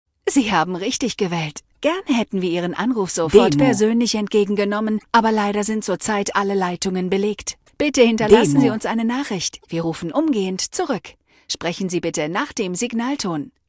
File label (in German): Ansage Alle Leitungen belegt mit Hinweis